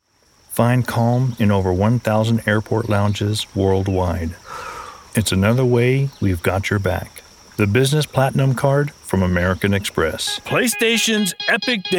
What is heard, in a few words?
Commercial